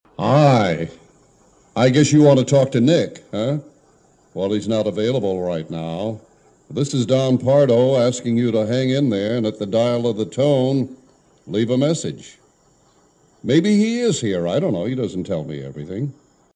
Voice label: phone machine message; Don Pardo